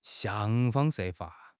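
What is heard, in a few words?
"想方设法", Mandarin Chinese; HSK6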